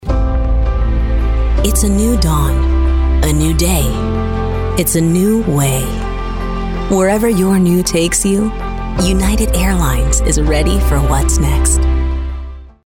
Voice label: Commercial Warm Inviting; United Airlines

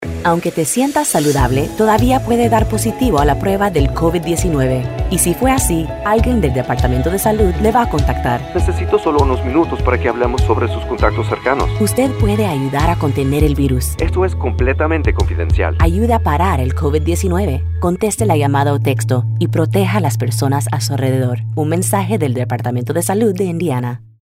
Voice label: Contact Tracing SPANISH Radio; IDOH

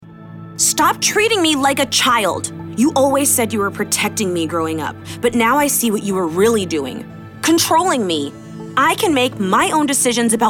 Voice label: Anime Emotional Teen

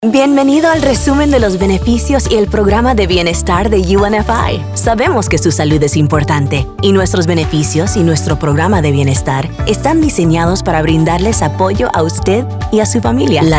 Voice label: Spanish Narration

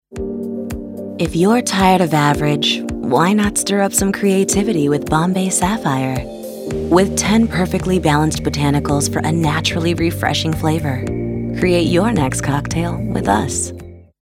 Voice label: Sultry Warm Sexy Cosmetic Adult Beverage

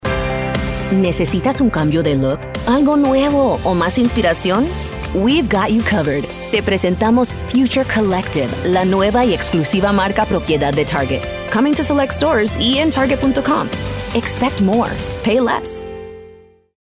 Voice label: Spanglish Commercial Upbeat Retail Approachable; Target

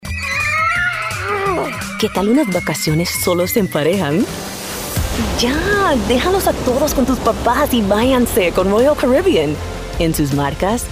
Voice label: Spanish Commercial Upbeat Conversational; Royal Caribbean

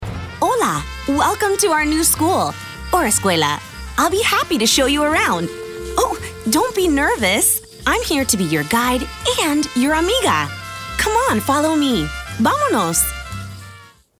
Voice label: Bilingual Educational Game

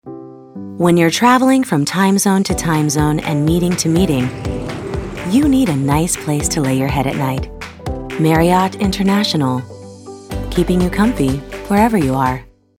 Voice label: Conversational Upbeat Friendly Travel

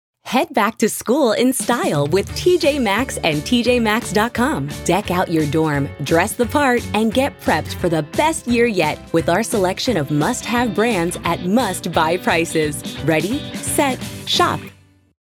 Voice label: Retail Upbeat Energetic Happy

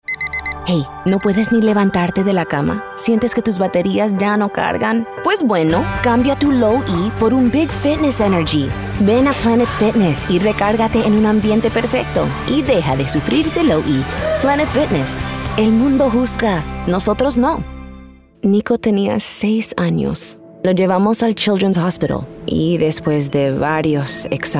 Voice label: Spanglish Commercial

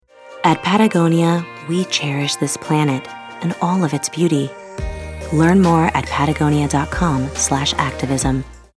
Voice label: Natural Relaxing Warm Caring

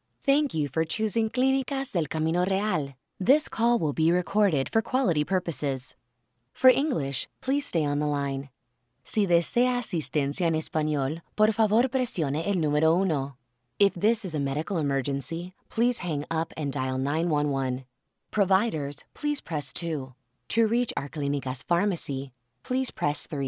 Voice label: Bilingual Clinic On Hold Caring Warm

